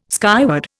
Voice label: "skyward", Indian